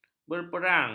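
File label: "berperang", Malay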